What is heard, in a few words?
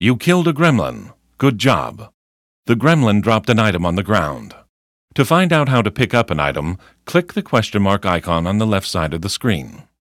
tutorial voice; lineage2